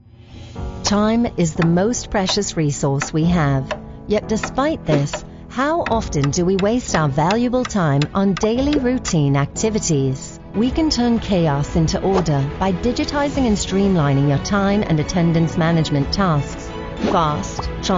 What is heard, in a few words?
female English American and Irish slight Irish accent corporate; voices; devine voices